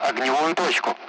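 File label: Speech, Russian; Allied Flight; bunker; DCS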